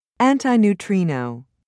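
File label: "antineutrino", British English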